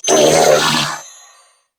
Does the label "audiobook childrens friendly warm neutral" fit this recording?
No